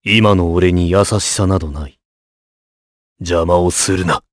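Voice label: voice, Japanese; Clause ice; kingsraid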